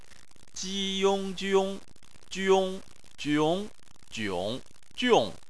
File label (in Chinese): "jiong", Chinese